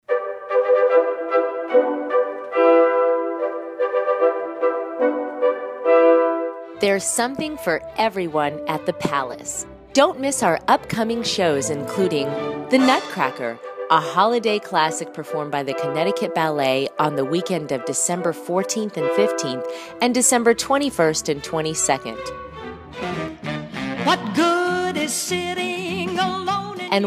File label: On Hold Recording; Palace Theatre